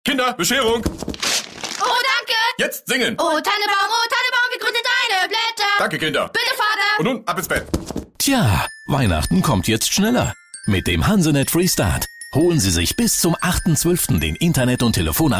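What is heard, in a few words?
DE M commercial, German, male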